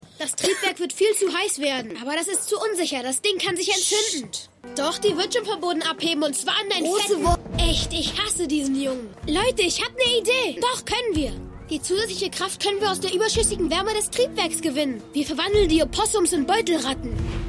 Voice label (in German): Kind; Stimmproben; Douglas Fargo; Eureka